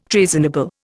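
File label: "treasonable", Indian English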